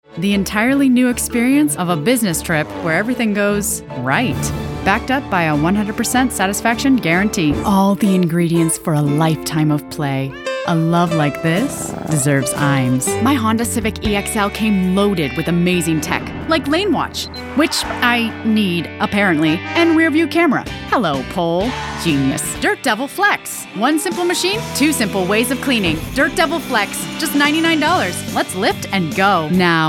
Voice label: Commercial, Female California VO Artist; Silicon Valley, California native with strong Canadian roots; Warm and friendly